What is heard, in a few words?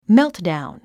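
"meltdown", English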